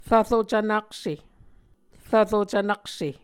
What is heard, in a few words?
"rvro cvnakse", Muscogee